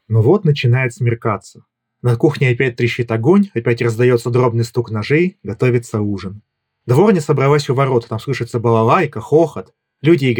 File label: Mic main; hollyland lark a1